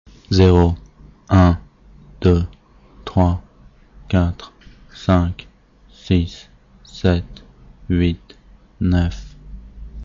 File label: count french